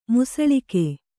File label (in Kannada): "ಮುಸಳಿಕೆ", kannada